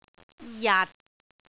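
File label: "jat6", Cantonese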